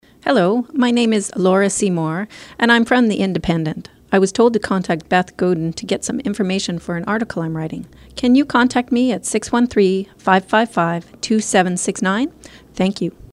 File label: voicemail message writer